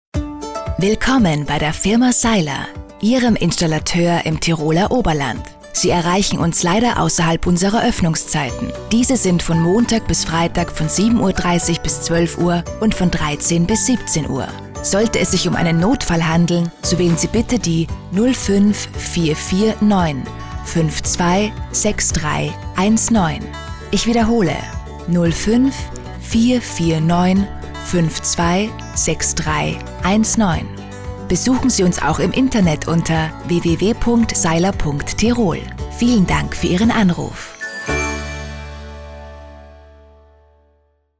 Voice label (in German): Nachtansage; telefonansagen sonstige